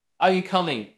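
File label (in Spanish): Voice Acting, Spanish, male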